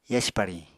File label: "yasi pariñ", Apatani